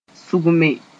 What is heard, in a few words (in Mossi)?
"sʋgme", Moore